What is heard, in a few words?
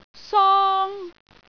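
"song1", Cantonese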